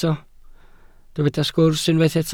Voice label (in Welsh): "sgwrs" Welsh